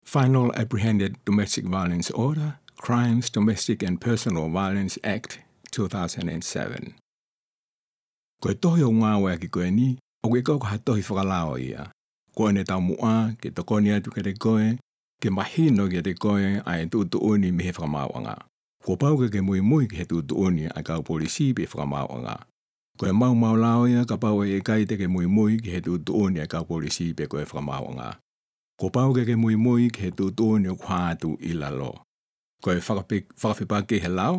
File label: tongan; introduction